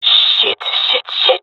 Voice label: scared, male; player vo; Counter Strike Model Pack; CS:GO